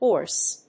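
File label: "orse", English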